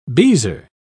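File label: "beezer", en, uk British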